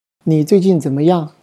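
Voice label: pronunciation zh ni zuijin zenmeyang, Chinese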